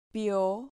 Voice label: "pio", Spanish syllable

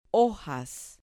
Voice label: "hojas", Spanish

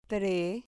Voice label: "tre", Spanish

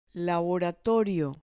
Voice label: "laboratorio", Spanish

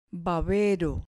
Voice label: "babero", Spanish

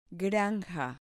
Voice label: "granja", Spanish

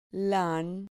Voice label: "lan", Spanish syllable

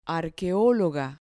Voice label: "arqueologa", Spanish

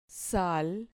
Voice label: "sal", Spanish syllable

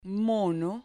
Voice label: "mono" Spanish

